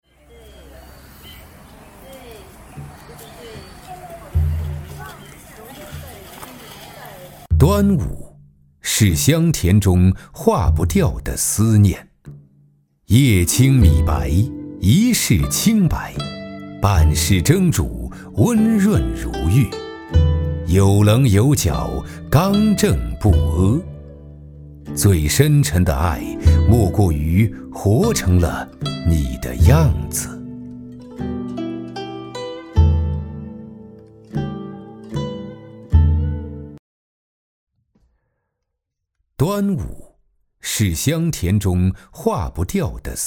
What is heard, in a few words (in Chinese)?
男, 记录 古韵 国风; 端午